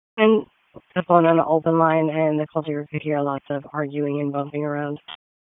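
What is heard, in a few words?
Raleigh Police Southeast District Dispatch, p25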